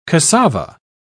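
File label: "casava", British English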